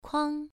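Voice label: "kuang", Mandarin Chinese, first tone, pronunciation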